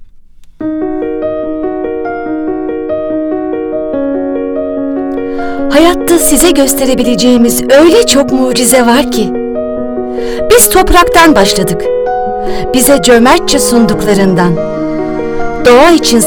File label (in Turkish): reklam